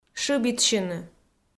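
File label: "шыбитсьыны", Komi, female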